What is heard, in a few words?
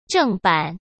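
"正版", Mandarin Chinese; hsk; chinese flashcards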